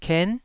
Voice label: "ken9", taiwanese syllables

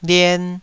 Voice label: "lien2", Taiwanese

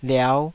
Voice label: "liau5", Taiwanese syllable

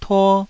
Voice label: "tuo2", taiwanese

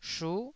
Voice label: "shu9", taiwanese Syllables

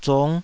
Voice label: "zung5", Taiwanese

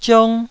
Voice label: "ziong1", Taiwanese

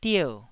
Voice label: "dier4", Taiwanese

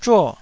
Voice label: "zhuo4", taiwanese

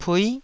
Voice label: "pui9", taiwanese

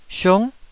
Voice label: "siung9", Taiwanese

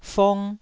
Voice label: "fong1", Taiwanese

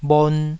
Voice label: "bhon2", taiwanese Syllables

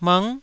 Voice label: "mng9", taiwanese syllables

